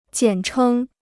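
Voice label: "简称", Chinese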